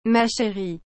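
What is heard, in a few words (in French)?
"ma chérie", French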